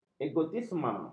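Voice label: "egotisme", Malay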